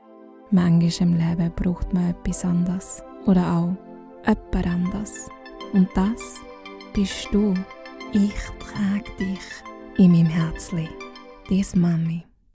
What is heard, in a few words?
Commercial Swiss German, voice